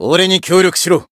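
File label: Voice limitbreak, Japanese; raven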